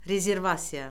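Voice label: "rezervasiya", Azerbaijani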